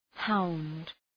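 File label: "hound", English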